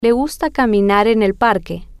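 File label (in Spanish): Le Gusta Caminar Parque, spanish, female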